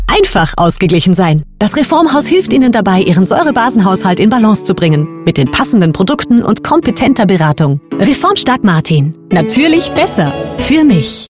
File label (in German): Werbung werbend Spot